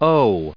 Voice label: "owe", English